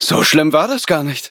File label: victory, de; cookie run